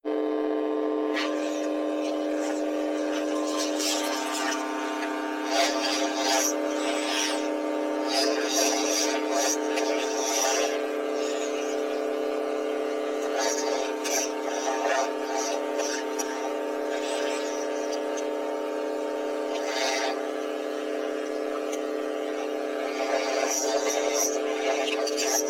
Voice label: psychological thinking silent speech when thinking with pre amplier; psychological thinking silent speech when thinking with pre amplifier